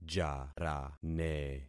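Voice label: "jarane", concatenated syllables; Affixing experiment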